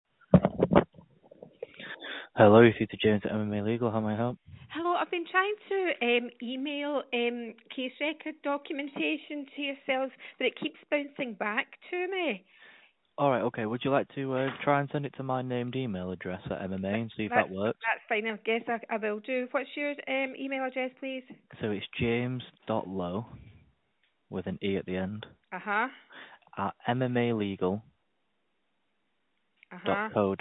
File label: call recording